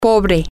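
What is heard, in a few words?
poor, Spanish, female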